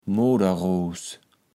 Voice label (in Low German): "Moderros", Platt